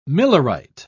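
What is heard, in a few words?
"millerite", British English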